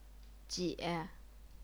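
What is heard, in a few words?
"jie", Chinese, third tone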